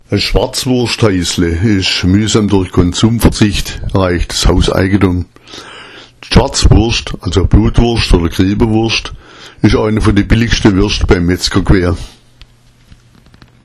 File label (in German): "schwarzwurstheisle", schwaebisch